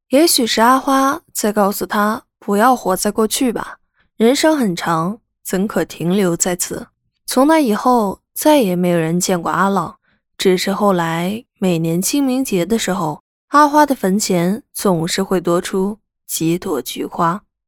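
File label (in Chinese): 情感配音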